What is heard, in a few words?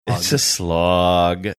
drawn out its a slog